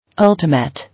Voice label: "Ultimate", English